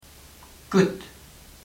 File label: fine, luxembourgish